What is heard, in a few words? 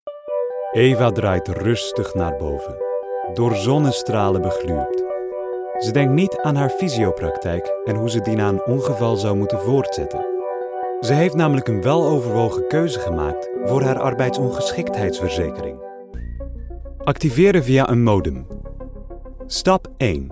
Dutch; Dutch, European, Male, Home Studio, 20s-30s